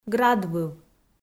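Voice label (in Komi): "градвыв", Komi, female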